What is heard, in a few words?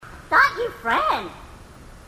grunt; h2dialogue; grunt crazy